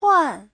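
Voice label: "huàn", Mandarin Chinese; 换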